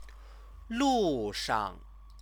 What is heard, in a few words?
"lushang", Chinese; 路 上; on the way; noun; Chinese lessons